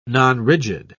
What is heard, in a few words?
"nonrigid", en uk